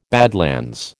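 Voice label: "badlands", American English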